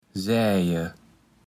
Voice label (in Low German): "säje", Platt